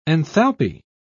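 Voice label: "enthalpy", English, British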